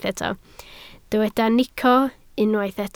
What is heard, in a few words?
"nico", Welsh